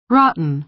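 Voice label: "rotten", American English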